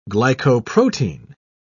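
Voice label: "glycoprotein", English, British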